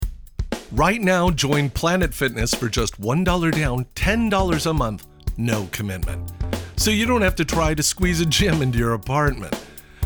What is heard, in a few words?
VO Quirky